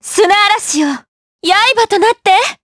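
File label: voice, Japanese; Laudia; kingsraid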